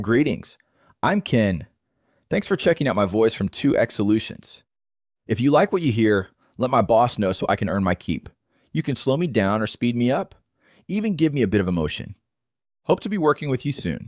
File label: Salesman; Cartesia